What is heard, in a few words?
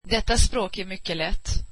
this language is very easy, swedish